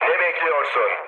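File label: Turkish attack position, mood low, speech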